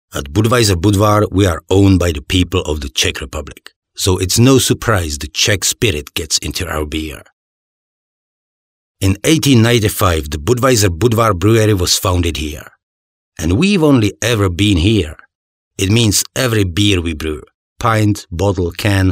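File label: Czech male English with Czech accent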